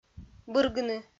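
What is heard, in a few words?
"быргыны", Komi, female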